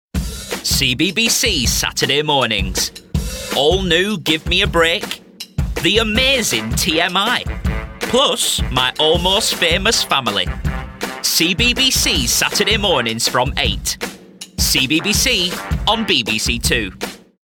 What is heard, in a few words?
Promo; CBBC